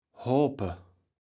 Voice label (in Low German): "hoape", kaevels platt